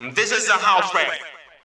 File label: Male FX Vocals; Houseworx